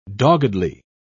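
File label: "doggedly", British English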